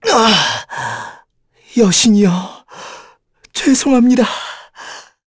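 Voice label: voice Dead, Korean; heroes; Lucias; kings raid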